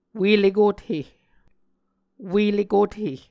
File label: "ue-lekothe", muscogee